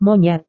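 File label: "monyet", Indonesian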